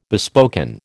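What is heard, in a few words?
"bespoken", American English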